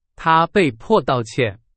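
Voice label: Chinese; hsk4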